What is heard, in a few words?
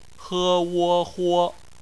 "huo", Chinese; bpmf